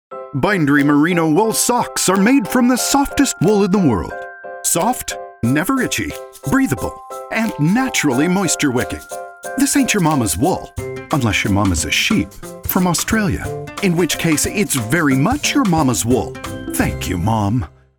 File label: COMEDIC Advert, en-US